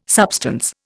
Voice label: "substance", Indian

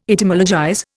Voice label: "Etymologize", Indian

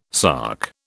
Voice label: "sark", British

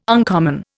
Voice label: "uncommon", Indian

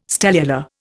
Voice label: "stellular", Indian

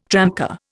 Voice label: "tramcar", Indian

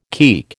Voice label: "keek", American

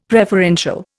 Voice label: "preferential", Indian; Dictionary

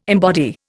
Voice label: "embody", Indian

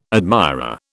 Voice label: "admirer", British

